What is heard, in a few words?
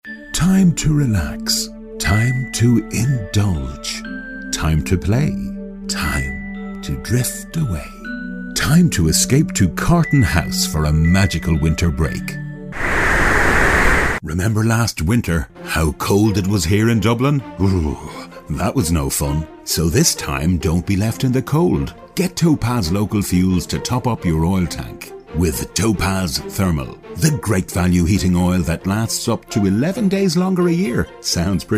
COMMERCIALS